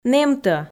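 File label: "нэмтӧ", Komi, female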